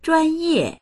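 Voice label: "zhuan1ye4", Mandarin Chinese; zhuānyè; 专业; 専攻、専門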